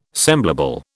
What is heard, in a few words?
"semblable", British